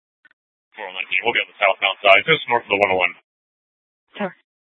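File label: police dispatch, Scottsdale PD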